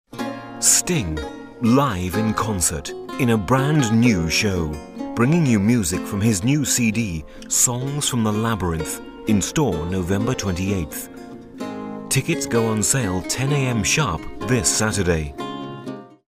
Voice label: british

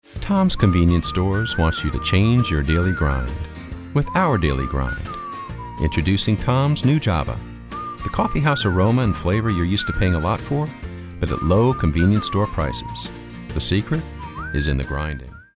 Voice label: friendly

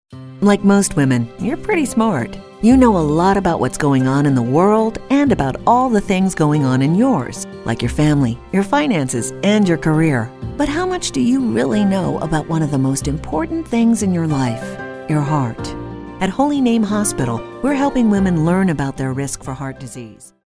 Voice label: motivational

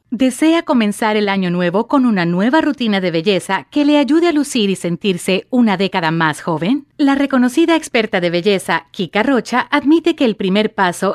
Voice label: Upbeat

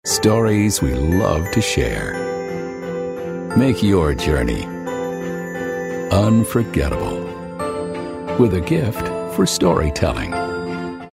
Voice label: Storytelling

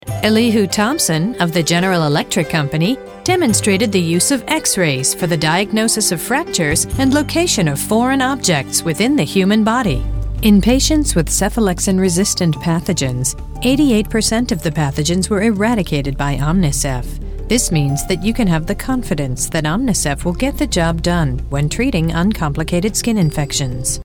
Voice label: Corporate Narration; Omnicef

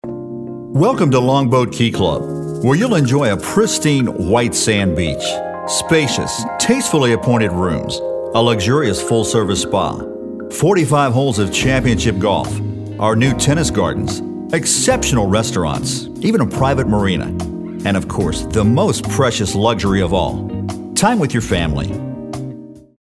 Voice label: smooth

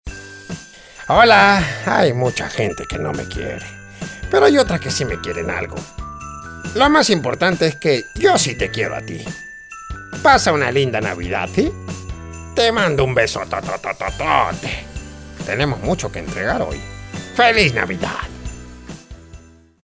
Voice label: Christmas Elf Spanish

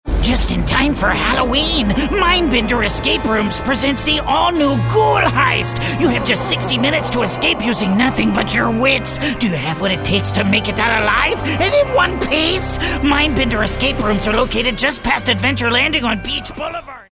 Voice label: character

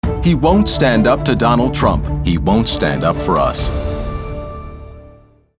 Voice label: Political Tag

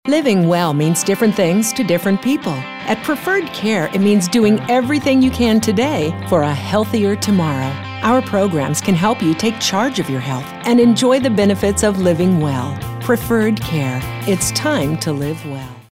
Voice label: perky

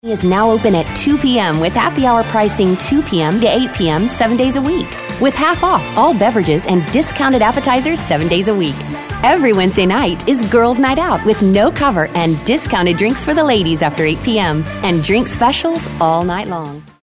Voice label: upbeat

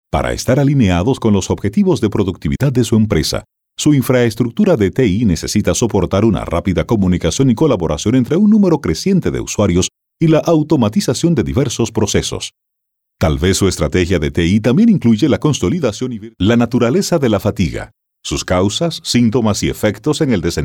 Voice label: Corporate Narrations

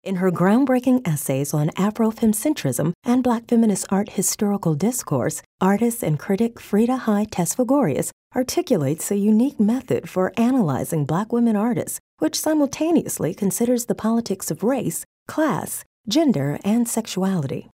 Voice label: narrative

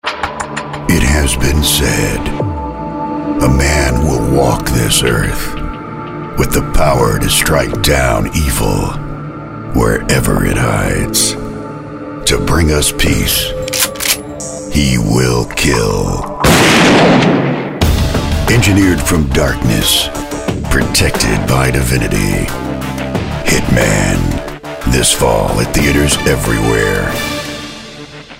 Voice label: movie trailer

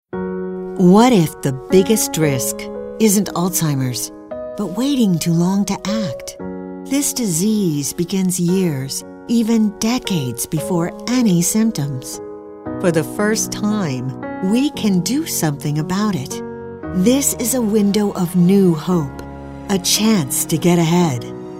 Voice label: Alzheimers, friendly

